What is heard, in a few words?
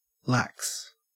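"lax", Australian English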